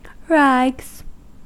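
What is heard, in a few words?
"rags", US English; pronunciations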